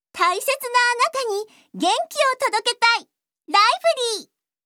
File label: Narration